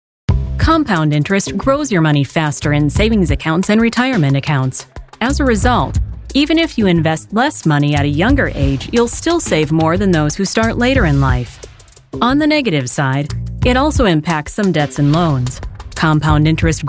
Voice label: Narration